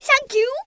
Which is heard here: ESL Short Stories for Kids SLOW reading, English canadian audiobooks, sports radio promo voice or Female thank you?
Female thank you